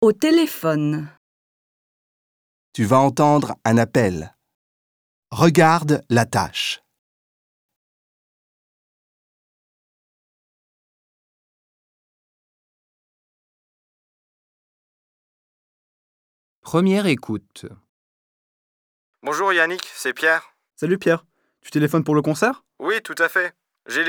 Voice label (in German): au telephone Hörsequenz; Französisch